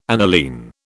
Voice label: "aniline", British